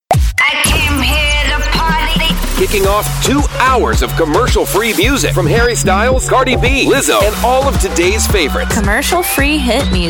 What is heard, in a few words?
Radio Imaging